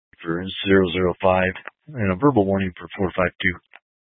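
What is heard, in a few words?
Troop 2A Dispatch, scanner audio recording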